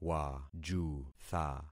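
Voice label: "wajutha", concatenated syllables; Affixing experiment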